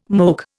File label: "moke", Indian